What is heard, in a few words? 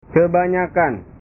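"kebanyakan", Indonesian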